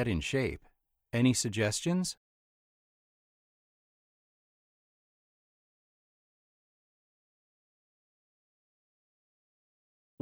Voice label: Pronunciation Practice